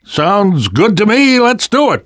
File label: generic responses, survivor voice; Left 4 Dead 2; left4dead2 dlc3